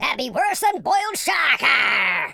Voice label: bummer, speech, Pirate